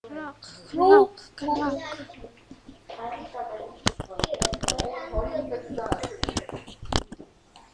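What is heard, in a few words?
"clock", spoken word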